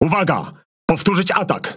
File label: MAIN TASK FAILED, Languages PL; World Of Warships